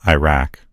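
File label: "iraq", English; gcompris; geography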